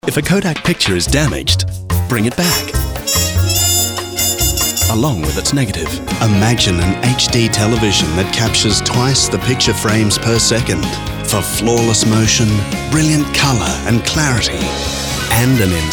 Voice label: neutral

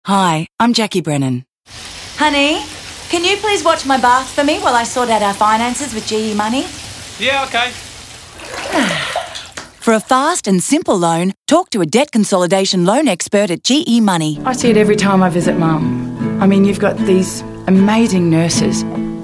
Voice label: VOICE ACTING

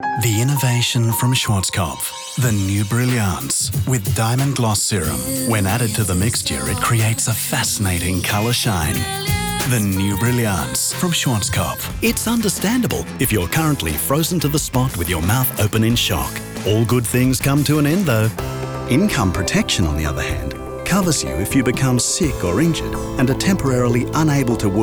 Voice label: Neutral Voice

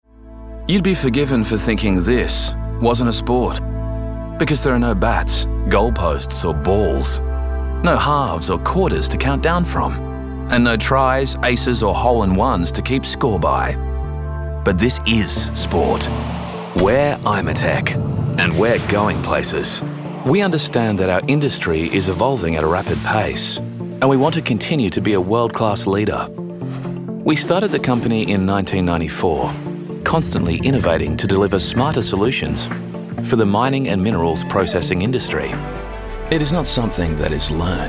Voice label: Narration

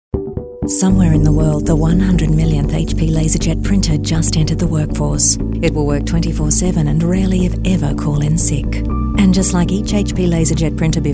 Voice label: neutral

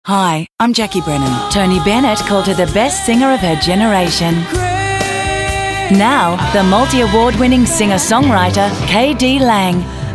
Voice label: PROMO

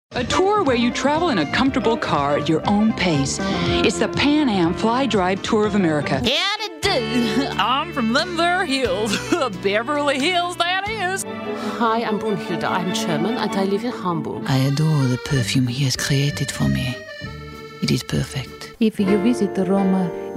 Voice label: accent

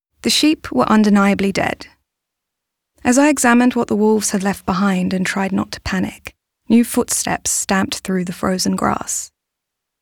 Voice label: narration soft UK accent

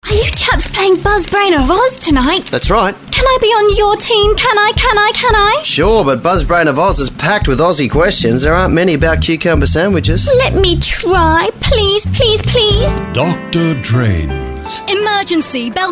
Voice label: Voice Acting

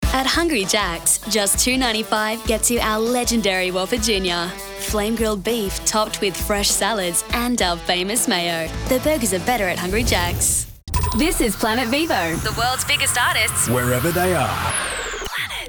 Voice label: Bright Upbeat

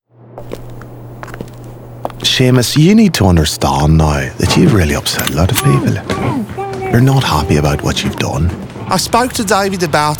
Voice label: Character

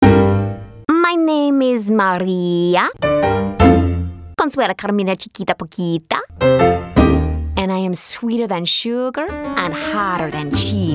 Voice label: Accents Characters

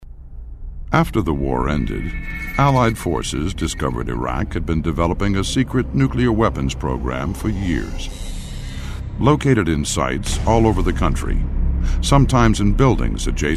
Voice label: corporate

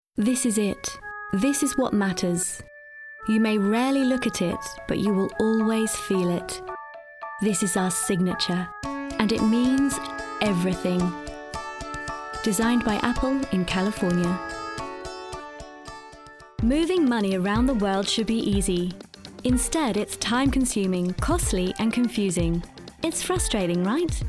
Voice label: Corporate

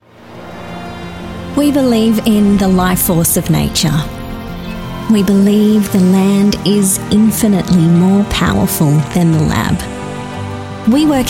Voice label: Narration

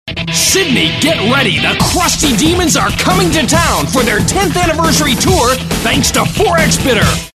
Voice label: hard retail

